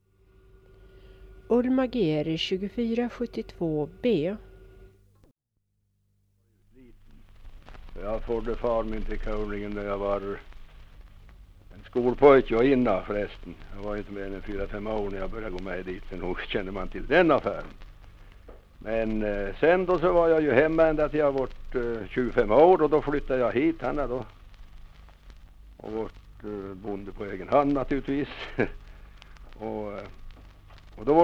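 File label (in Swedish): Hållnäs Uppland, dialekter; Svenska sagor; Folkminnen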